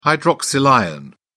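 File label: "hydroxyl ion", British English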